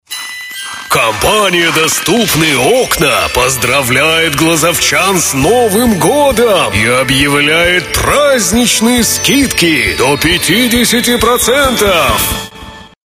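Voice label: Parod Ded Moroz, parody Father Frost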